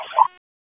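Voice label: Police Special Operations Tactical; Raleigh; Spec Ops Tac 3; wake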